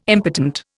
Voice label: "impotent", Indian

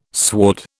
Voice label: "sward", British

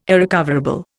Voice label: "irrecoverable", Indian

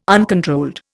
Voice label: "uncontrolled", Indian

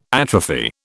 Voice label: "atrophy", British

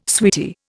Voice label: "sweetie", Indian

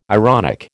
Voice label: "ironic", American

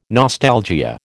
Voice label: "nostalgia", American

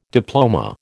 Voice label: "diploma", American

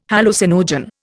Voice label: "hallucinogen", Indian

